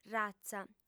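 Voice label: "ratza", female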